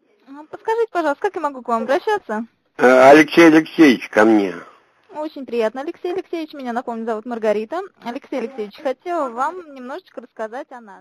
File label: positive voice